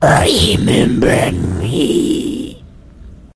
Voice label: wound, vort speech; hl2

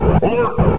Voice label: go alert, combine soldier, far; hl2

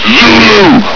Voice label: "zulu!", hgrunt; hl2

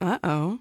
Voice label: uh oh; k lab; hl2

